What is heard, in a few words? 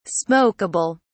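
"smokable", British English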